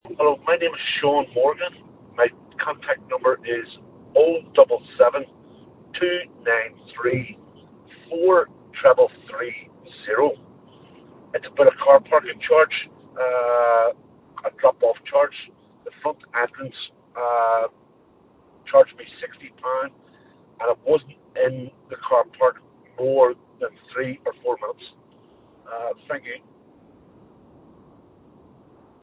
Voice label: call recording